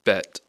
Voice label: "bet", English

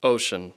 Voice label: "ocean", English

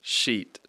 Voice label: "sheet", English

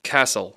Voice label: "castle", English

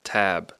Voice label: "tab", English

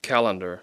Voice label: "calendar", English

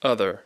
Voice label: "other", English

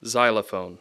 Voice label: "xylophone", English; Speech Pro; Z (sound)

